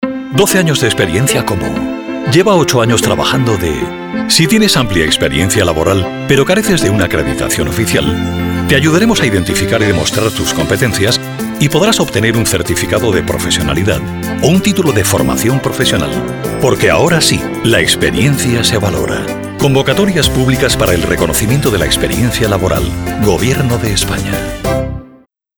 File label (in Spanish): CASTELLANO RAD; cuna radio; SEPE